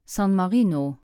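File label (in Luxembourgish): "San Marino", Luxembourgish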